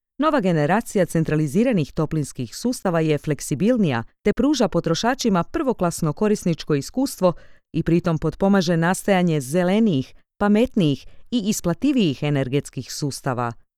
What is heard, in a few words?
educational croatian sample